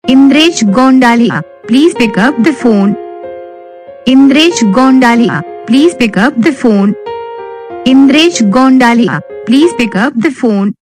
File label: pick up phone saying, Ringtones